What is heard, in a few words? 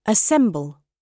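"assemble" British English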